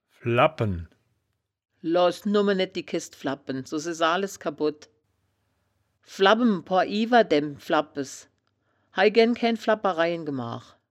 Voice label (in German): "flabpen", woerterbuch, Wittlich